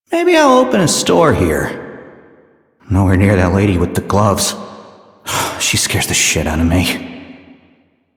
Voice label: Citizen Male, en, Mall; Warframe; Citizens